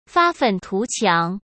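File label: "发愤图强", Mandarin Chinese; chinese-flashcards; hsk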